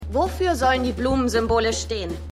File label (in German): Studentin; New Amsterdam